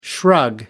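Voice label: "shrug", British English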